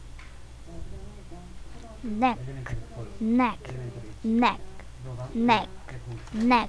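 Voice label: "neck", spoken word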